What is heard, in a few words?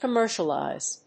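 "commercialize", English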